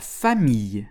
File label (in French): "famille", French